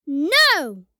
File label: "No" vocalization boy child kid